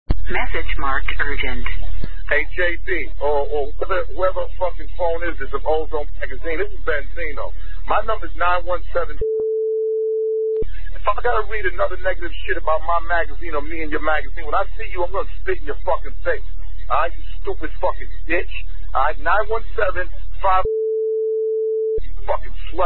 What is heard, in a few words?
voicemail; benzino; ozone